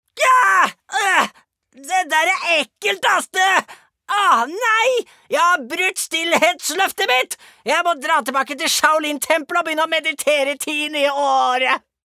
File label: character, norsk mann